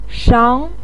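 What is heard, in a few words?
"shang", Mandarin Chinese, second tone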